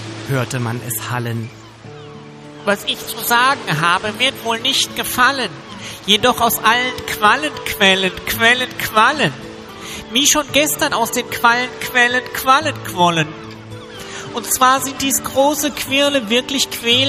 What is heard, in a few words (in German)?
durchsage; Durchsage am Strand; Alex Dreppec